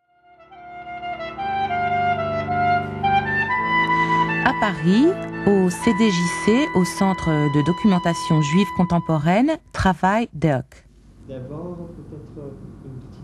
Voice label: doku franzoesisch, frauen aus aller welt

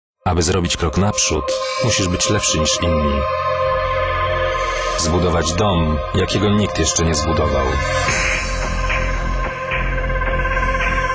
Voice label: werbung polnisch, Männer; cadillac

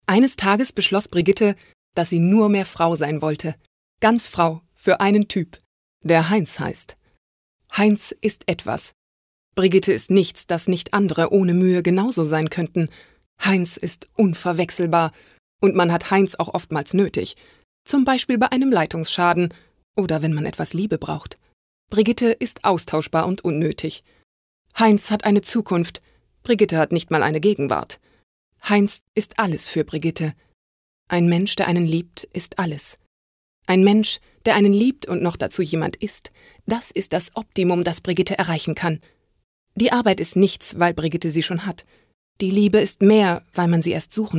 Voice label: Erzählung, Frauen; weibl.; Nordischer Dialekt; Spezialitäten: Voiceover, Nordischer Dialekt, Audiodeskription, Hörbuch; Berlin

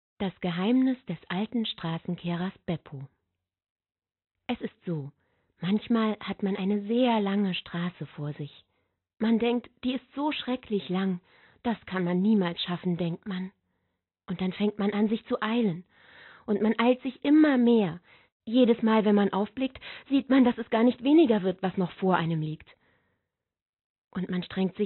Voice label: Erzaehlung, Frauen Stimmen; Beppo

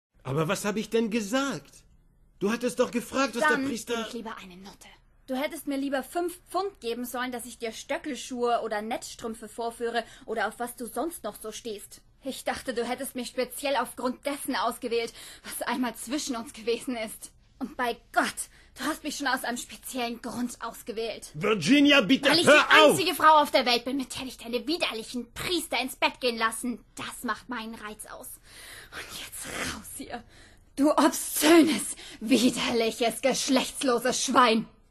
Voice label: Synchronisation, Frauen; Sword of Honour

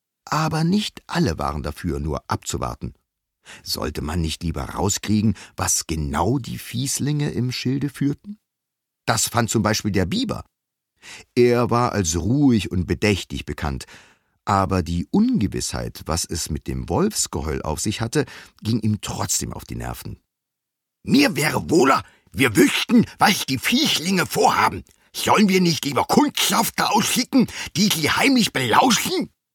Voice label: Hoerbuch Kinder, maenner; Berlin

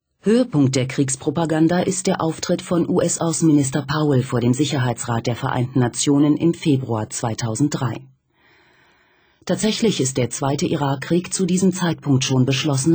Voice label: nachricht kommentar, frauen; Sprecherinnen, Weibliche

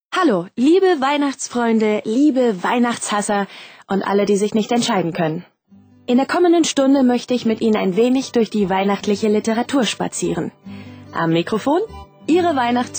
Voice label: moderation literaturmagazin, Sprecher, Frauen

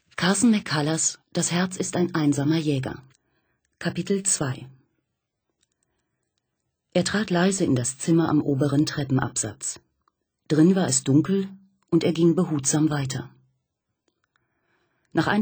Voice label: erzaehlung fiction, frauen

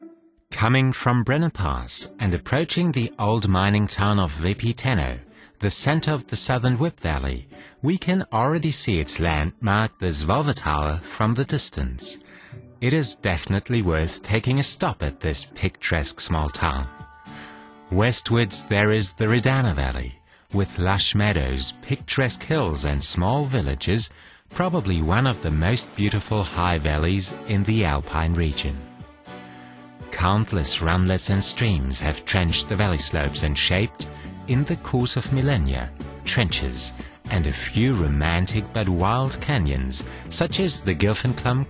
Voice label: Imagefilm englisch

